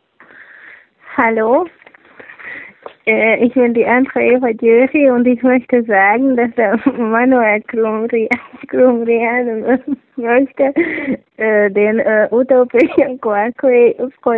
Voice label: Voicemail